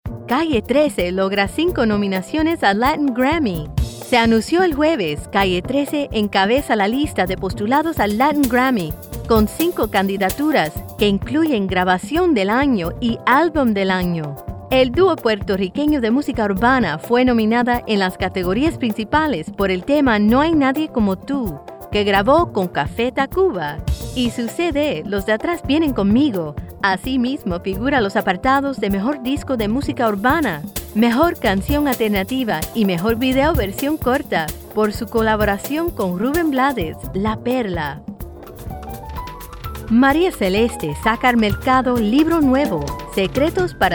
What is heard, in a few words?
Spanish Noticias